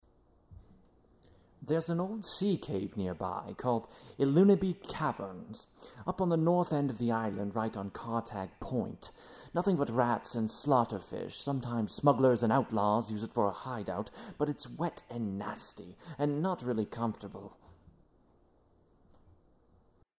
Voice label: voice acting breton english; skywind